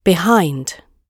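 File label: "behind", British English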